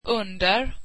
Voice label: swedish under